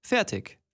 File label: german voice; wyrmsun